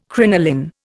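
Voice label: "crinoline", Indian English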